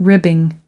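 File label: "ribbing", US English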